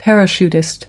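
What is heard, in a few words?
"parachutist", US English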